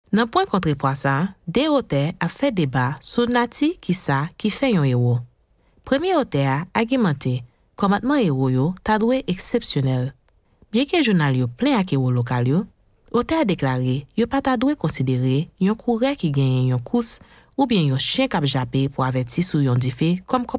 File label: Audio Book